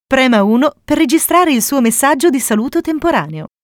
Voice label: Record Temporary Greeting, italian

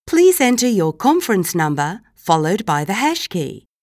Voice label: australian english Enter Conference Number

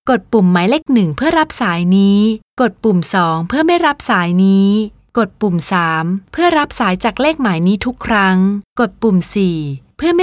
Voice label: thai Accept Call Navigation

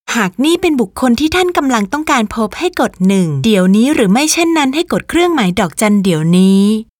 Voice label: thai Agent Confirmation

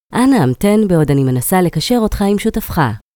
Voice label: Please Hold, hebrew

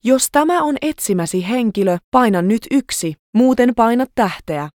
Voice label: Agent Confirmation, finnish

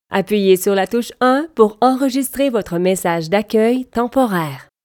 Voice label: canadian french Record Temporary Greeting

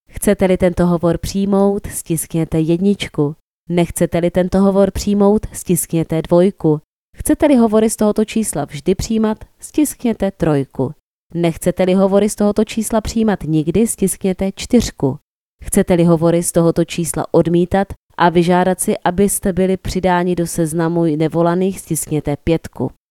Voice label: Accept Call Navigation, czech

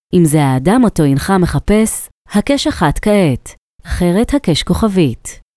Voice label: hebrew Agent Confirmation

